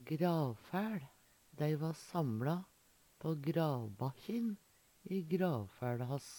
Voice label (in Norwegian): "gravfæL", Numedalsmål